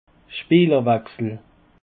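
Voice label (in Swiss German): "speelerwechsel", Alsatian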